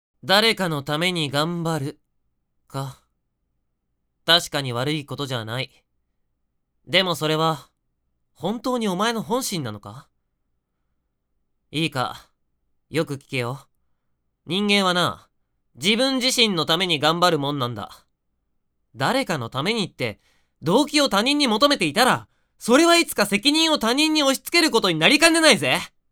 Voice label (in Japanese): 誰かの為に 声質 低